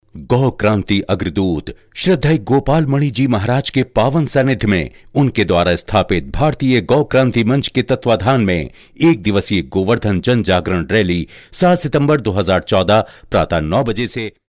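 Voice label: Hindi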